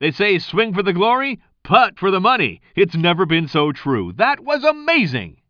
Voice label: commentator